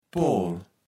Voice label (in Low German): "Pool", Platt